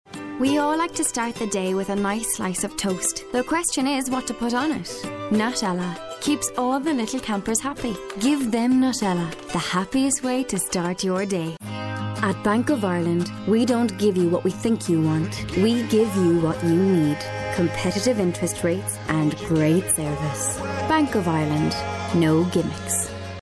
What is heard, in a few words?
commercial reel